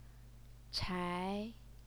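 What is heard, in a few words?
"chai", Mandarin Chinese, second tone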